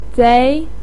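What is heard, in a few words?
"zei2", Mandarin Chinese pinyin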